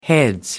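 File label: "heads", British English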